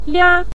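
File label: "lia" neutral tone, Mandarin Chinese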